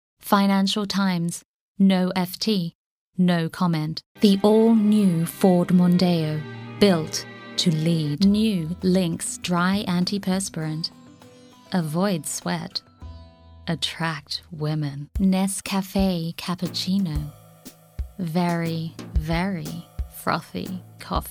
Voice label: voice neutral

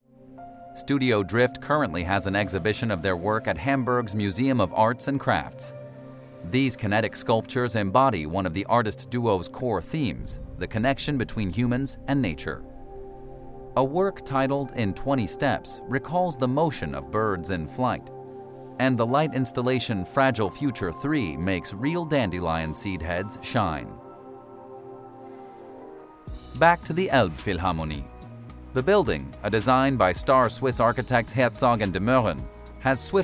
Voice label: Narration; LOFT VOICES

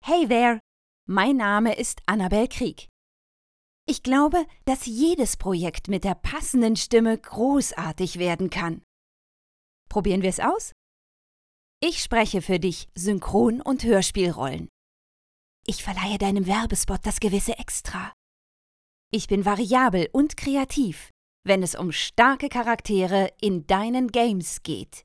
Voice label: Presentation

